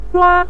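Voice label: "zhua", Mandarin Chinese syllable, neutral tone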